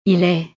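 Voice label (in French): "il est", French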